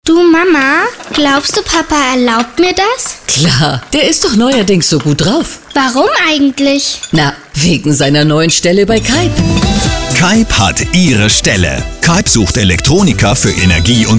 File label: Radio Spot; keipp; Spot Rockland Radio